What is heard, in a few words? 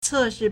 "ce4shi4", Chinese